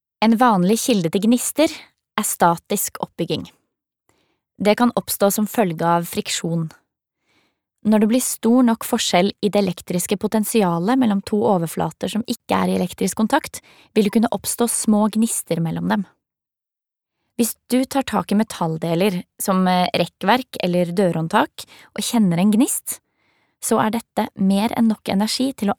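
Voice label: no elearning, Norwegian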